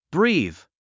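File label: "breathe", English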